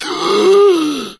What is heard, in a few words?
Voices Character Male